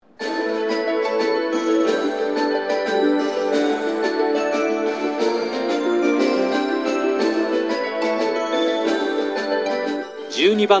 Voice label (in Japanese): 12番のりば発車メロディ＋発車予告放送; shin minamata